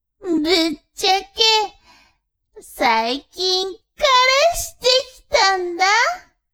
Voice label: woman